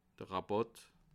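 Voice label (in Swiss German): "rabatt", Bas Rhin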